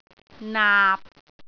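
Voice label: "naap6", Cantonese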